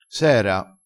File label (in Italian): "s era", crespinese